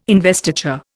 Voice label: "investiture", Indian

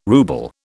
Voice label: "rouble", British

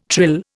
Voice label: "trill", Indian

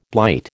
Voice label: "blight", American

